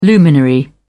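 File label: "luminary", British English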